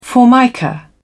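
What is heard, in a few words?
"formica", English, British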